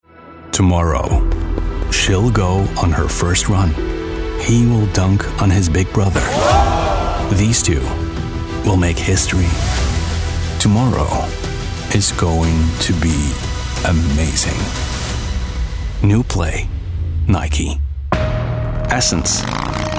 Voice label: english international commercial; Global English voice talent